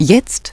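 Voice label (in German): "Jetzt", German; Navigation; DISTEFORA Navigation; Mobile Navigator Notebook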